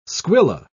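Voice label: "squilla", British English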